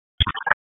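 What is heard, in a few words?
Police Dispatch, p25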